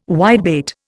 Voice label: "whitebait", Indian